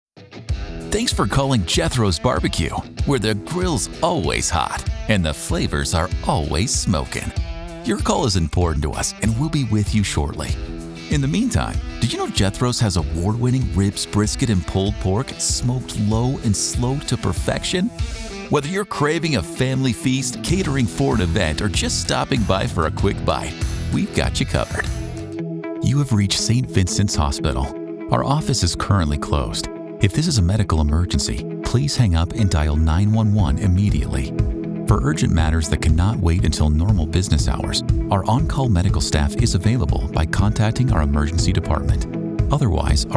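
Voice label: IVR, Voice Actor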